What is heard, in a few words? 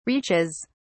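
"reaches", British English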